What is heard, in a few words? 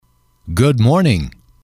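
"good morning", English, male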